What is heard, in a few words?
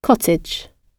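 "cottage" British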